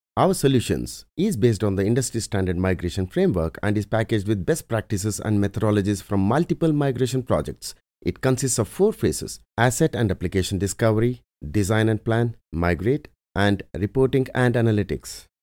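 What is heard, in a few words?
Kannada, male, English, product best practices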